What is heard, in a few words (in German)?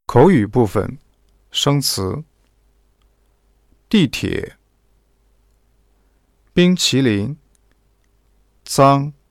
dialog vokabeln; lektion 2